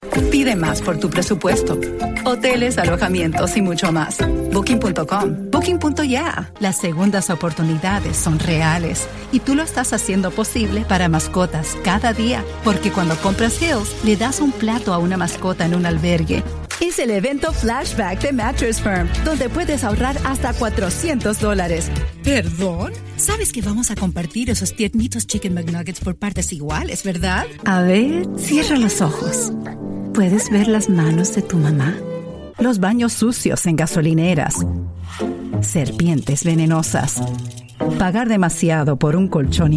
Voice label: Commercial Spanish